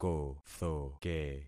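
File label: "kothoke", concatenated syllables; Affixing experiment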